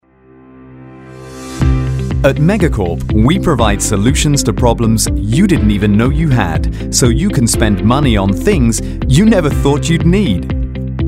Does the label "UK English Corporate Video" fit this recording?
Yes